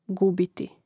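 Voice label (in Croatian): "gubiti", hrvatski; mreznik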